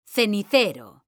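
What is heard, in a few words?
"CENICERO", Spanish, female; mekanta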